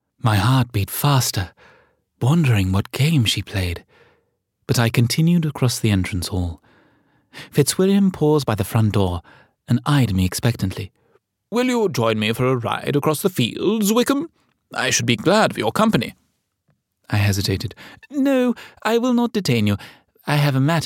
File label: french voice audiobook